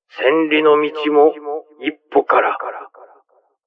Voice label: Japan Journey, Male